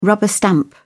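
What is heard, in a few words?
"rubber stamp", British English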